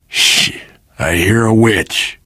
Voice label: heard witch, survivor voice; source engine; left 4 dead 2

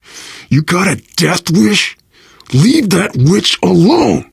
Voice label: witch getting angry, survivor voice

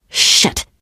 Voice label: swear, teengirl; voice; source engine; left 4 dead 2; survivor

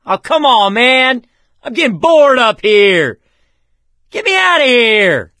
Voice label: call for rescue, survivor voice

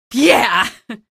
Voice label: kill, female; episodic; episode 1; Source engine

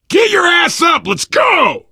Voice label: revive friend loud, player survivor voice biker

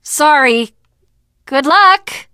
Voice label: initial meeting, teen girl

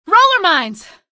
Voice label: alert rollers, female; video games; npc; source engine; episodic; episode 1

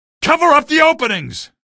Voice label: alert antlions, male; video games; npc; source engine; episodic; episode 1

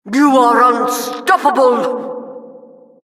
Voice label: announcer, vo, killstreak

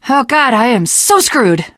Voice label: jumping off bridge, teengirl; video game; Left 4 Dead; Source Engine; survivor

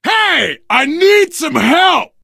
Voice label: help, player survivor voice biker

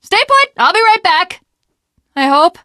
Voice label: jumping off bridge, teengirl; Left 4 Dead 2; Source Engine; video games

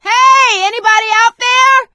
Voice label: call for rescue, survivor voice; source engine; left 4 dead 2; producer

